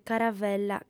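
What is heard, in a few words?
"caravella", Sardinian, female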